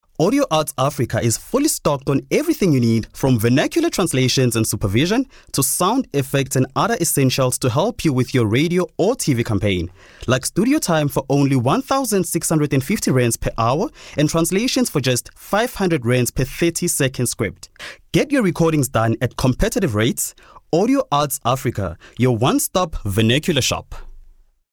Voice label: English Retail

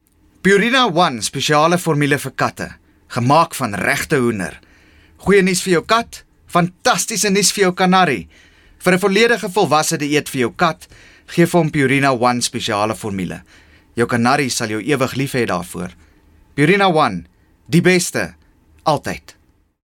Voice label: Afrikaans Medium Sell

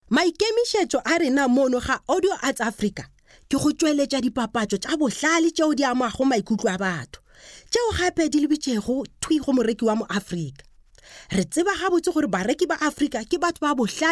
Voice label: Pedi Corporate

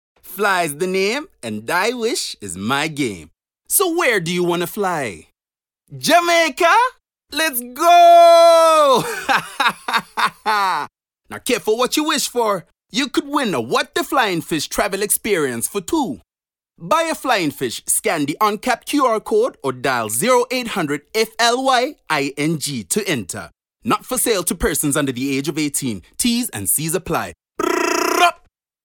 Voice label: Accent Jamaican, voice artists

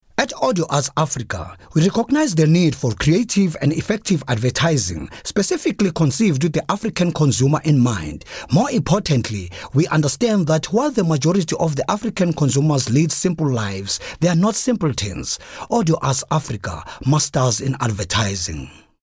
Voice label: English Corporate

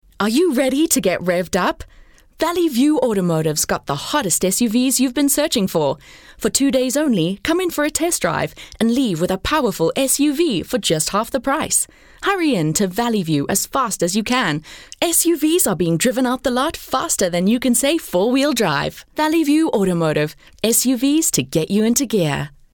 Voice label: English Medium Sell

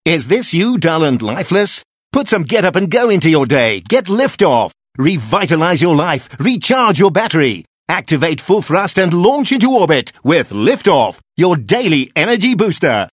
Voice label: English Hard Sell

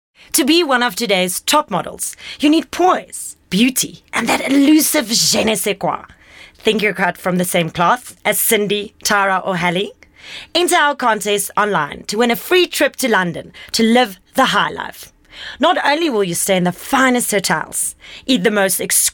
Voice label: English Promo

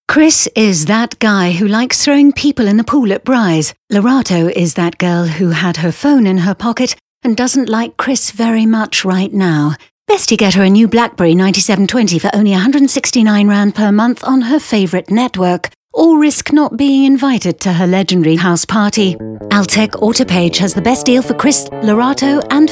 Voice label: English Medium Sell

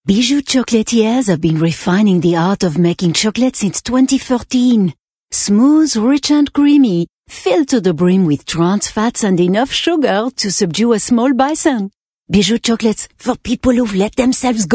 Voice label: Accent French

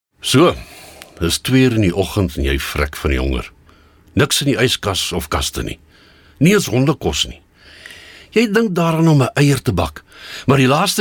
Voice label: Afrikaans Conversational

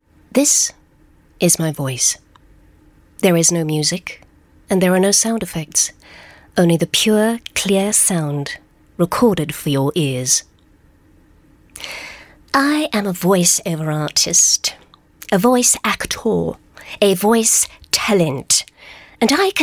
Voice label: Showcase Delivery and Character

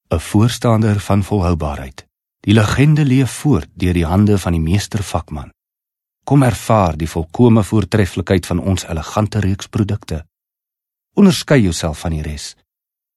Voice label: Afrikaans Corporate

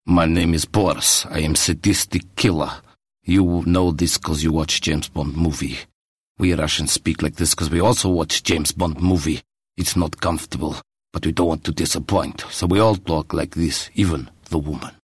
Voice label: Accent Russian

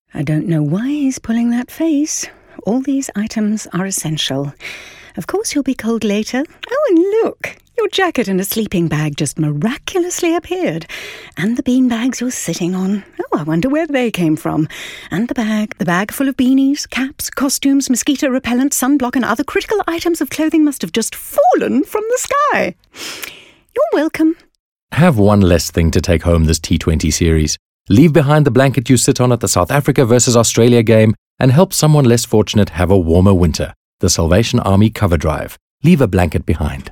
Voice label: English Conversational

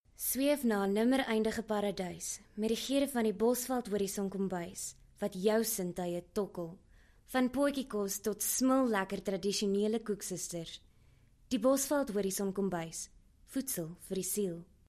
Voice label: Afrikaans Soft Sell

